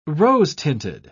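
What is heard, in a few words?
"rose-tinted", British English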